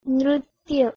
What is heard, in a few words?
"nrtya", Sanskrit